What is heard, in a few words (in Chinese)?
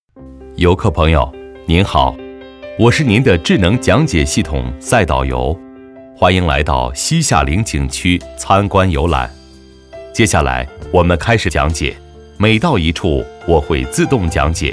男 课件 讲解词; 西夏陵景区讲解词